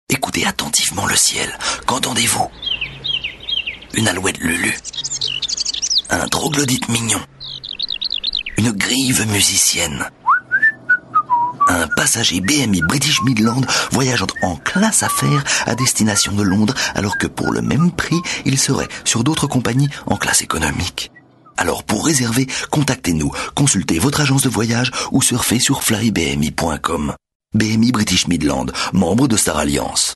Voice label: commercial

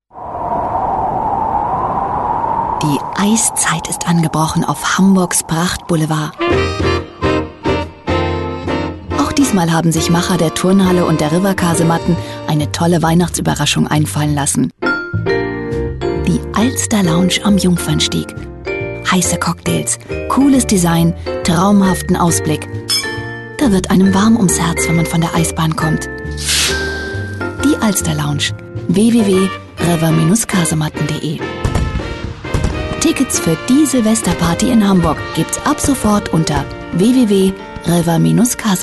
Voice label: radio ad

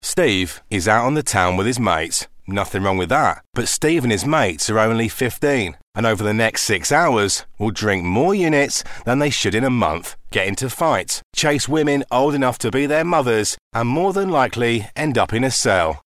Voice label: documentary

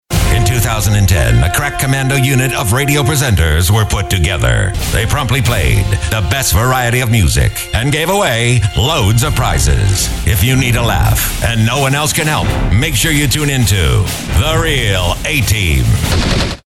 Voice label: radio ad

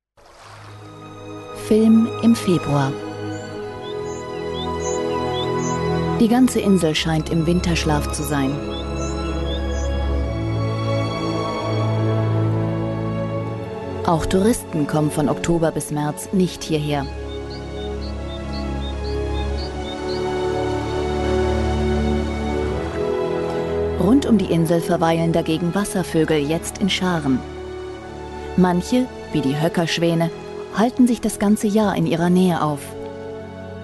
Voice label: narration

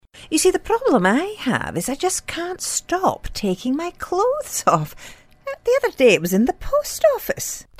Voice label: scottish

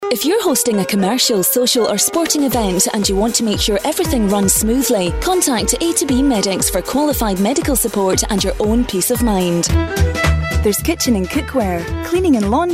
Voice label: scottish

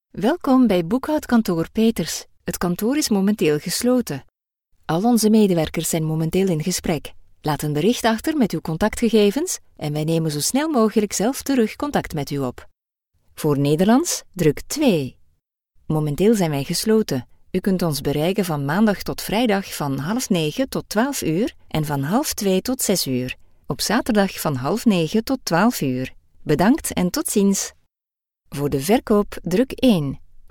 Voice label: Telephone Flemish

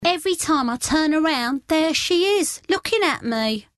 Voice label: essex accent

